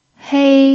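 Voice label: "hei" first tone, pinyin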